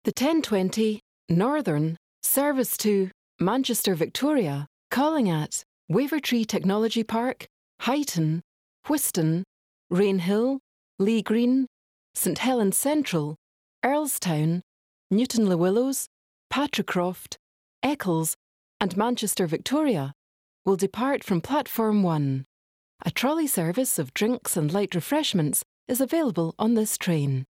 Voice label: National Train Platform Announcements